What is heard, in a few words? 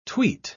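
"tweet", English word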